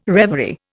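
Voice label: "reverie", Indian English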